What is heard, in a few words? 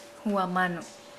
Nukuoro; flower